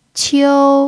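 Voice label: "qiu" first tone, chinese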